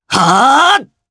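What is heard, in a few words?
voice Skill, voices heroes, Japanese; Theo; kingsraid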